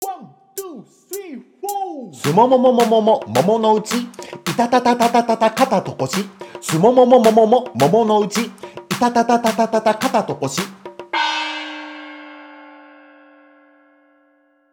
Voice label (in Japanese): 早口言葉リズム（中級編）